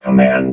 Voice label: "command", robot vox